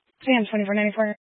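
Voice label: Sheriff Dispatch, Scanner audio recording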